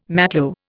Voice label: "matlo", Indian

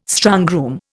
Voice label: "strongroom", Indian

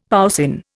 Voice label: "poussin", Indian; Dictionary

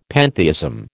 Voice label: "pantheism", American

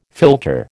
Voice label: "philtre", American

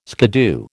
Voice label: "skiddoo", American

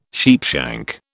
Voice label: "sheepshank", British

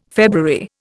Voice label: "February", Indian

